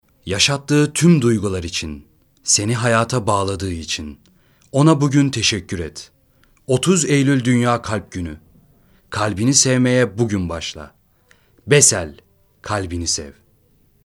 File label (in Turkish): REKLAM